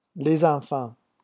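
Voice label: "les enfants", French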